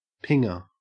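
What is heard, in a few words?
"pinger", Australian English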